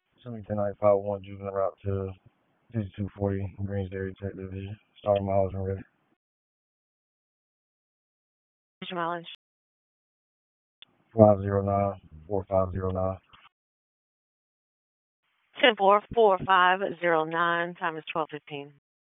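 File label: Police Northeast District Dispatch, p25; Raleigh; wake p25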